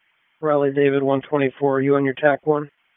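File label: Police Northwest District Tac, radio; Raleigh; wake; p25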